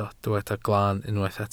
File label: "glaan", welsh